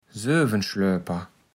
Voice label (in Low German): "Söwenschlöper", platt